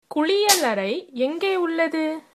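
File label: toilet, tamil, female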